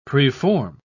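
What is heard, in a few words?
"preform", English, British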